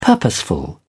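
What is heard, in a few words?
"purposeful", British English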